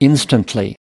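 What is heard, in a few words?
"instantly", American English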